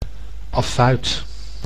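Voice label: "affuit", Dutch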